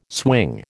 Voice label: "swing", American

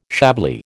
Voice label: "Chablis", American

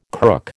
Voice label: "crook", American

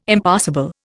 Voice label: "Impassable", Indian

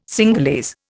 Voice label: "Cingalese", Indian

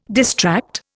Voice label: "distract", Indian

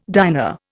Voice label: "diner", Indian

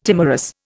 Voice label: "timorous", Indian

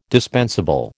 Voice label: "dispensable", American

